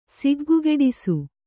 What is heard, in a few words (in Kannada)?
"ಸಿಗ್ಗುಗೆಡಿಸು", kannada